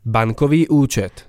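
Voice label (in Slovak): "bankovy ucet", Slovak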